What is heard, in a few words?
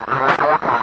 radio voice, Distorted Radio Voice